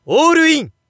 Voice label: Narration POKER All In